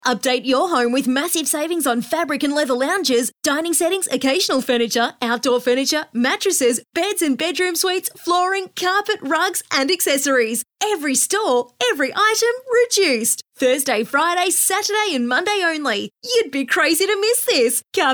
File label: Hard Sell